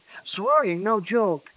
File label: Voice Acting, es